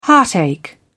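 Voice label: "heartache", British English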